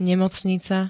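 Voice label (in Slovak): "nemocnica", Slovak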